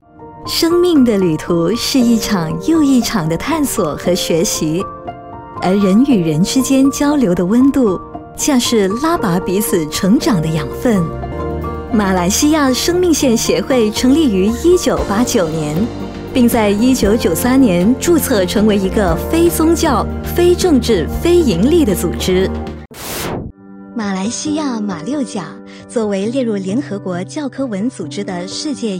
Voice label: Corporate